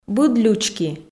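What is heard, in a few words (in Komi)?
"быд лючки", Komi, female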